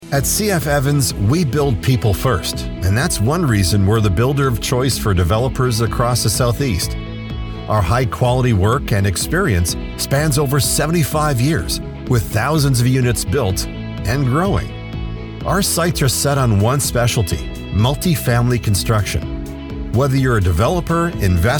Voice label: male English North American neutral North American accent; commercial; cf evans